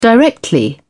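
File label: "directly", British English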